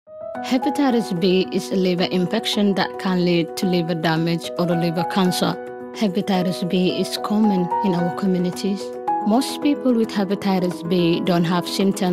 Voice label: Hepb PSA, African; hepatitis